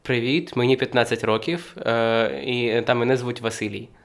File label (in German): spricht Ukrainisch